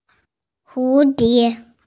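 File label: "hu2 die2", Mandarin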